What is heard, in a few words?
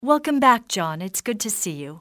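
hey, english female; XBOX; civ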